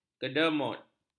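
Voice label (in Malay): "kedemut", Malay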